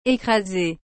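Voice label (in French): "ecrase", French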